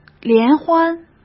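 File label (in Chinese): "联欢", Mandarin Chinese; hsk; chinese-flashcards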